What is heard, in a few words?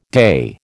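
"day", American English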